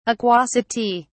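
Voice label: "aquosity", British English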